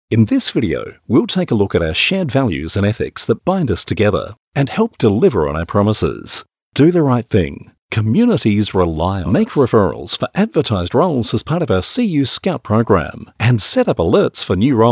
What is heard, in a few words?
Corporate